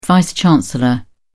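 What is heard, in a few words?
"vice chancellor", British English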